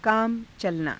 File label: kaama calanaa, Hindi; कामचलना; 間に合う，用が足りる